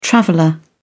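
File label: "traveller", British English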